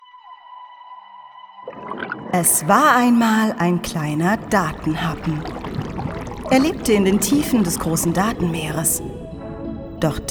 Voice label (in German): produktfilm deutsch, Sprecherin; ibm